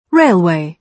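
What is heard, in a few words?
"railway", British English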